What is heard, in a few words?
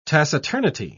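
"taciturnity", British English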